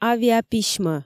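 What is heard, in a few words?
"авиаписьмӧ", Komi, female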